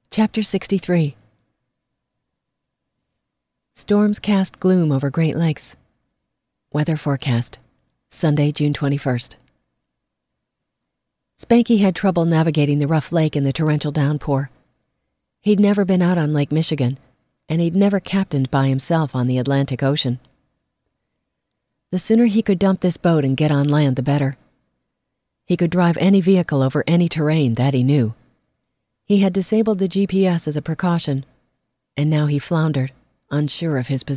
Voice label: bad gating